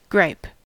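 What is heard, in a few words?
"gripe", US English; pronunciations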